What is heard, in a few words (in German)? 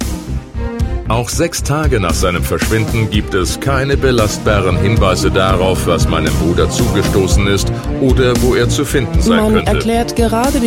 Podcast Trailer TrueCrime